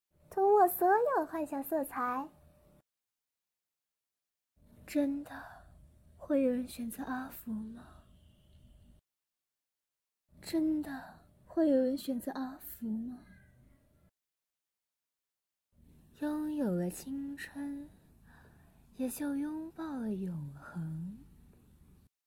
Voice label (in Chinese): 配音原声; 王者荣耀